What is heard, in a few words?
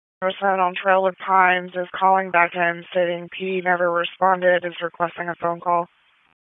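Raleigh Police Southwest District Dispatch; wake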